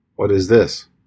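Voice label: pronunciation "what is this", English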